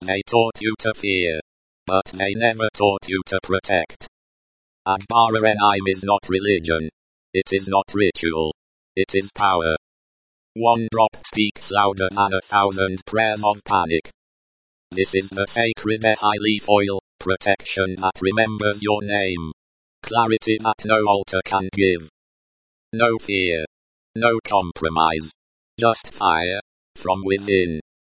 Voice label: audio message; Agbara Nime; Ancestral Firewall Activated; sacred ehi leaf spiritual oil